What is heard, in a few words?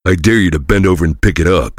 heavy battlecry; tf; duke